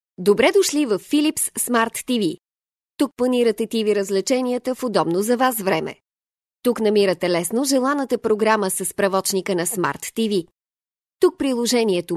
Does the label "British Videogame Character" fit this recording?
No